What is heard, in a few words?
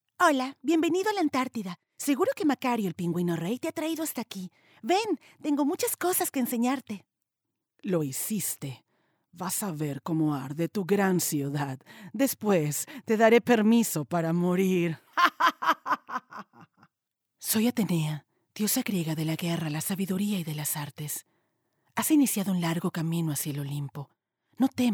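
Spanish Latin America female character; spanish americas voice over talent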